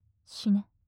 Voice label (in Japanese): "死ね" 近 左, ASMR